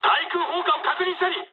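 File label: damaged aa mood high, speech japanese; War Thunder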